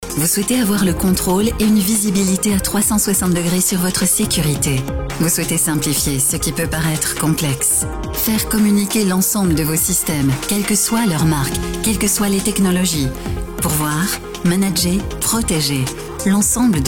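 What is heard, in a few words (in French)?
film video corporate, voix off femme; stanley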